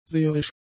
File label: "seherisch", German; adjectives